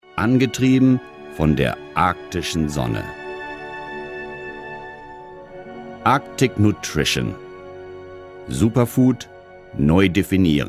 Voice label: German